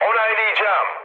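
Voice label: Turkish player killed enemy, mood med, speech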